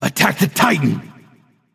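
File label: vox attack male; smite; zeus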